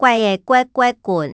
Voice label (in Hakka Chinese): 𧊅仔嘓嘓滾, 客語